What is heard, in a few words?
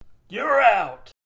Umpire Saying Youre Out